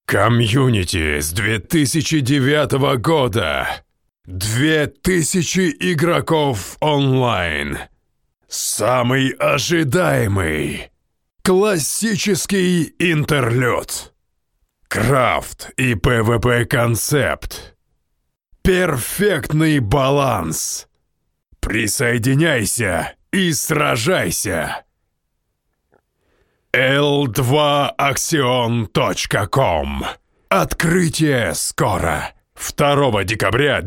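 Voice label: Russian Male Character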